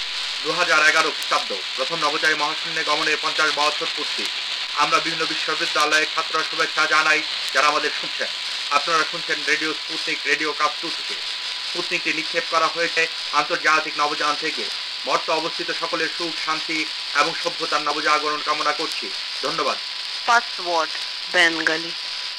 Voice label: arissat bengali